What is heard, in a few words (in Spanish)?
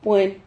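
"puen", Spanish syllable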